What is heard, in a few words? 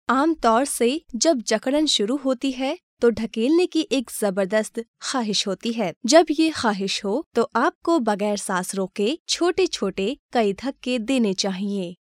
female urdu voice over narrative